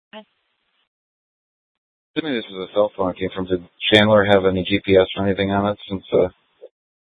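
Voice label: police department dispatch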